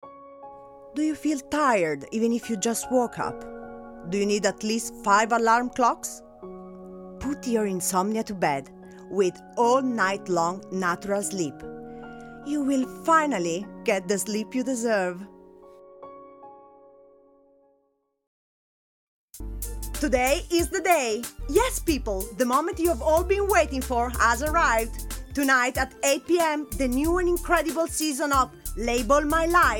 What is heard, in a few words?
Voice Over English